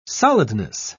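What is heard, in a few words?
"solidness", English, British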